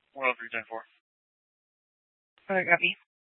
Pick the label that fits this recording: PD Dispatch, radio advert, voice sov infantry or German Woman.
PD Dispatch